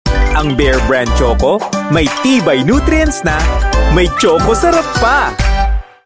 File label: Tagalog; Choco Sarap na Katumbas na Tibay pa BEAR BRAND Choco